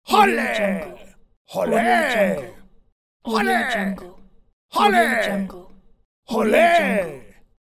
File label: man voice "ole"